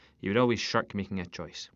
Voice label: scottish

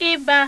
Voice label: igbo, vowels

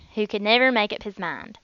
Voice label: southern

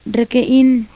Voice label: girls, vowels

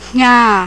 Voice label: burmese

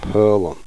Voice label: gaelic, vowels

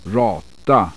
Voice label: swedish, vowels

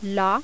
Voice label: french

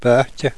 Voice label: gaelic, vowels

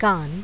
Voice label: gujarati

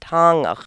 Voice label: aleut

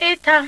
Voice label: igbo, vowels

